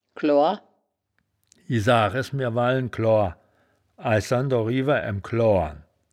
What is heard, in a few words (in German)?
"kloar", wittlich